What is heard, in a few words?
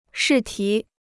"试题", Chinese